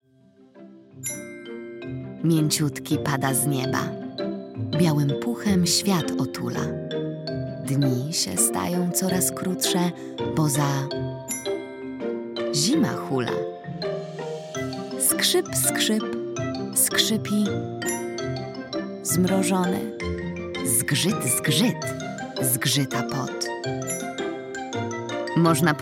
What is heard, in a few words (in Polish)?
narracja; Mroźna zima; czasopismo mis